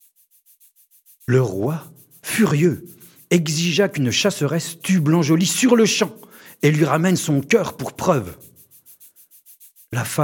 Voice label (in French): narration